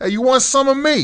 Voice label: cuss, profanity, male; kingpin